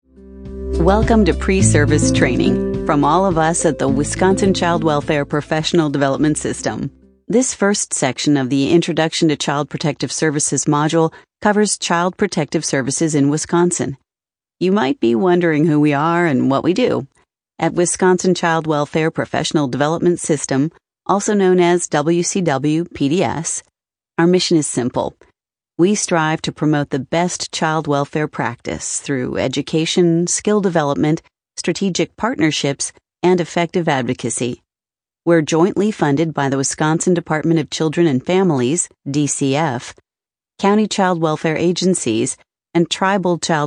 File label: eLearning State Foster Care